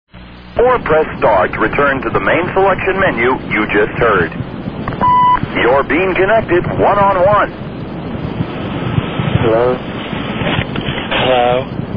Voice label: gay chat line, Prank Calls